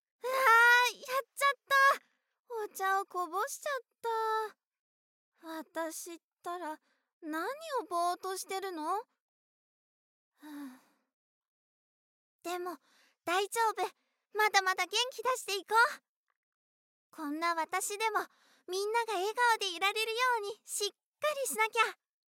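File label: voice sample, honest clumsy girl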